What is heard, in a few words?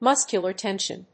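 "muscular tension", English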